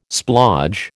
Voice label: "splodge", American

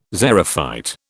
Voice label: "xerophyte", British

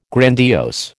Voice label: "grandiose", American

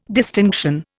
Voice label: "distinction", Indian

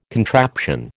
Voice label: "contraption", American

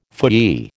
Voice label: "phooey", American

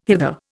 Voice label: "hither", Indian

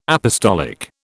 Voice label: "apostolic", British

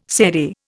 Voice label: "serai", Indian

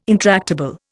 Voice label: "intractable", Indian

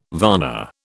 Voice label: "varna", British

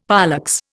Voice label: "pollex", Indian; Dictionary

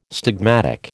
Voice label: "stigmatic", American

